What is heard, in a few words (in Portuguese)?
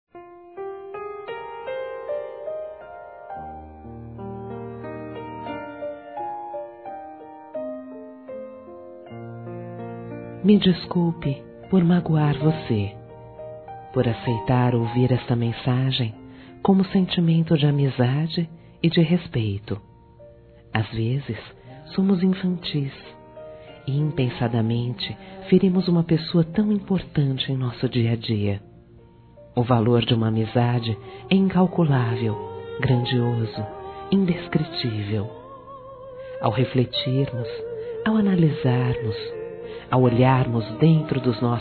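Telemensagens, Feminino; NÃO QUERIA TE MAGOAR-F; Amizade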